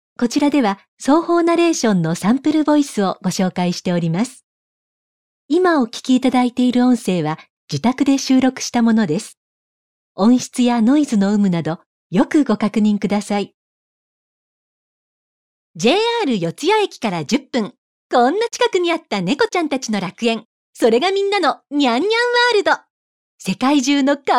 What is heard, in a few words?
narration